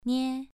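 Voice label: "nie", tone 2, pronunciation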